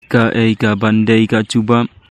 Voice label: Khasi

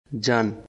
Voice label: Bengali, Bangladeshi Accent; Ja/ jao/ jaan

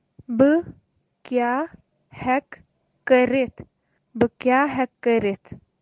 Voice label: Kashmiri; What can I do?; Bu kya hekh karith?

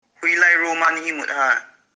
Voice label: In which room did you sleep?, Kuki

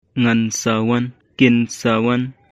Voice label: "Ngan sa wan", Khasi; Will come

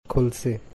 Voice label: "Khulse", Bhili; Khulse (to Open)

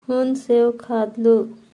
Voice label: Un sev khadlu, Halbi; He ate an apple.

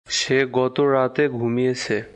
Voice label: Bengali, Bangladeshi Accent; Se gota raat ghumiyeche

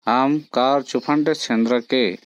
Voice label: Korku

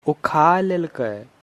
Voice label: "Uoo khay lelkey", Angika language; He has eaten.

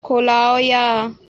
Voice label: "Kolawo ya", Ho language; Will open

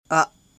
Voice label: "Ahh", Lotha; I

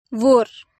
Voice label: "Wor", Gondi; He

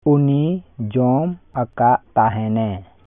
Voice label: Santali; He had eaten.

